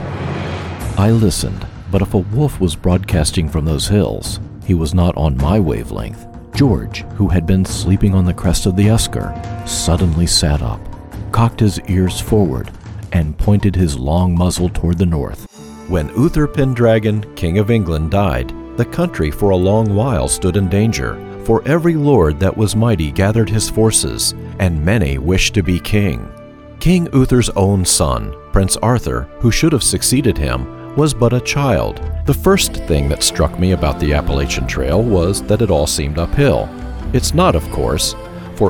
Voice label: Audiobook

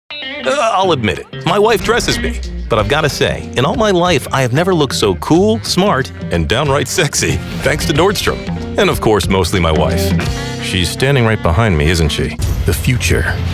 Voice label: commercials

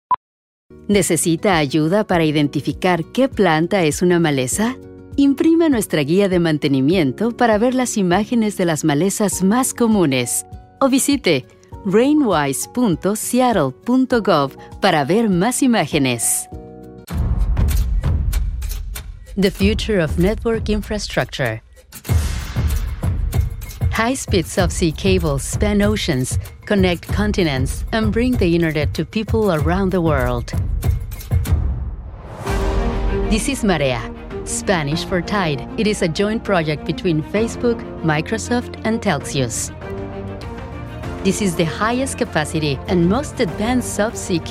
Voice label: Bilingual Industrial

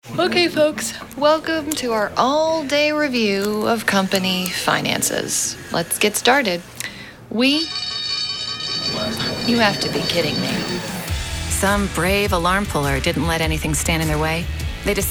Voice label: tube radio; Silverwood; Screw The Meeting

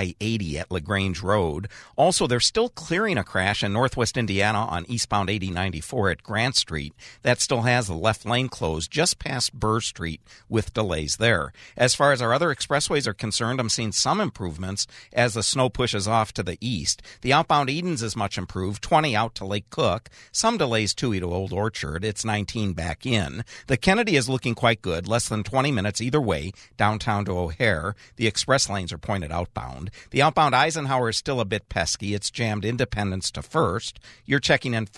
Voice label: Traffic AirCheck

